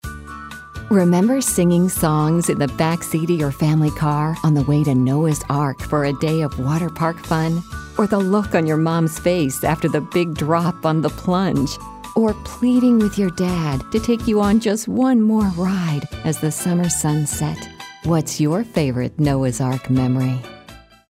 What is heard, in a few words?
warm and fuzzy